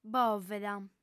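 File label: "bòveda", female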